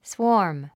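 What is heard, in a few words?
"swarm", English word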